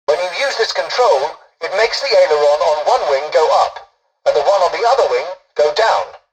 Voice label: tutorial dialogue, english; War Thunder